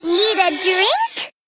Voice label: Female Elemental

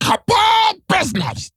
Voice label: Male Attack

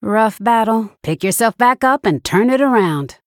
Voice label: Female Human Combat Loss; Bacon Shop Bob

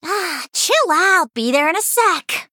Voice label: Female Human Greetings; Bacon Shop

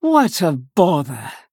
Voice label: Female Night Elf Attack

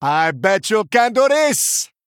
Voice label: Male Troll

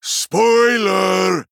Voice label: Male Draenei Attack

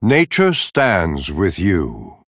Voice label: Male Night Elf Bark; Malfurion Stormrage

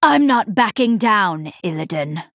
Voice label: female night elf

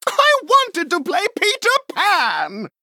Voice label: Male Human Attack

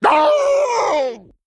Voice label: Male Worgen Death

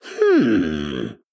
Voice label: Male Goblin Thinking

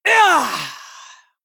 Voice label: Female Troll Death

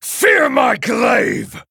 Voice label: Male Night Elf Attack

